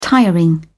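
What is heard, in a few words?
"tiring", British English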